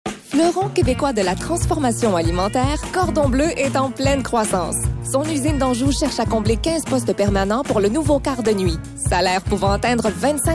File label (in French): publicite radio embauche; cordon bleu